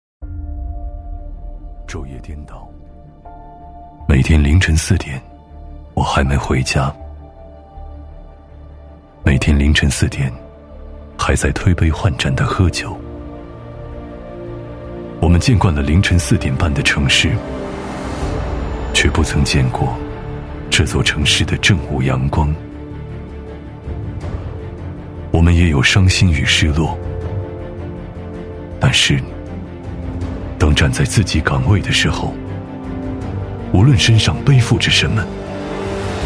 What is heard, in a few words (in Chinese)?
男 微电影 磁性走心; 员工大会